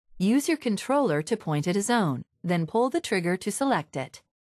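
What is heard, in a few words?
select zone help, English (Canada); retaildemo; Windows; Mixed Reality Learning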